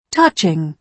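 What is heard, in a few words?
"touching", British English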